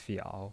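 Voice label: "fiao" Mandarin Chinese, third tone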